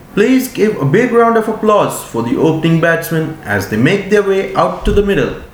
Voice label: english